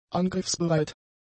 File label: "angriffsbereit", German; adjectives